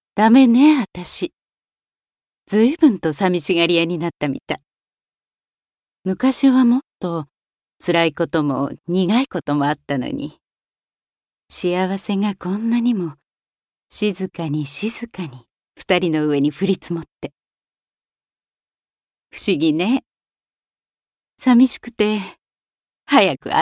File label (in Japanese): しっとり